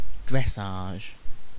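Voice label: "dressage", British English; pronunciations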